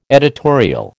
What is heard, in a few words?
"editorial", American